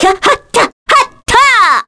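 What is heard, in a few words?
Vox Casting, Korean; Scarlet; kingsraid; voices heroes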